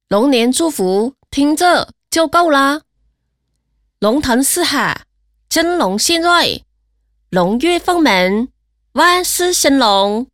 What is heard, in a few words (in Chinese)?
广西方言 女 广西话 龙年祝福; 方言配音